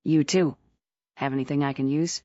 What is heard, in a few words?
fem